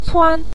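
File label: "cuan" Mandarin Chinese, neutral tone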